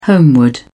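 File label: "homeward", British English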